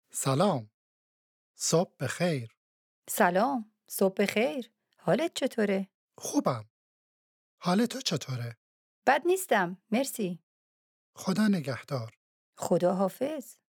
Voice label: Dialogue lesson Farsi